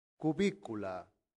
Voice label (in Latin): "cubicula", latin; santillana